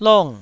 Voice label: "long4", Taiwanese